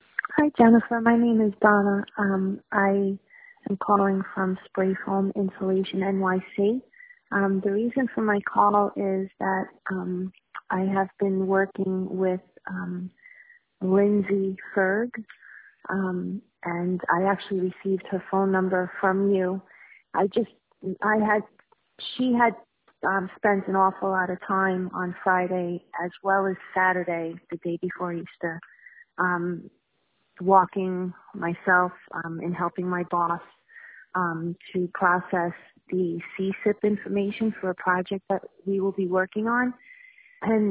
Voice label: voicemail